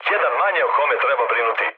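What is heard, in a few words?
Serbian player killed enemy mood low; War Thunder; speech srb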